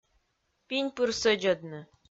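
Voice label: "пинь пыр сӧдзӧдны", Komi, female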